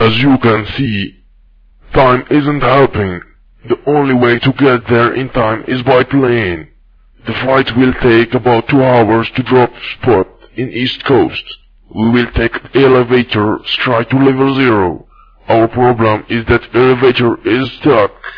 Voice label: pick, fgrunt; alphaunit